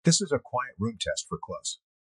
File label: spoken room close